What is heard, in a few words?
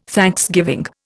"thanksgiving", Indian